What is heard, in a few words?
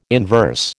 "inverse", American